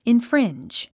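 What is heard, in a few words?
"infringe", English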